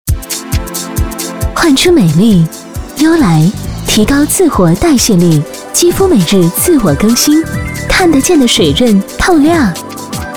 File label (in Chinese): 女 广告 化妆品; 优莱